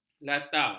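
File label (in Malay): "lata", Malay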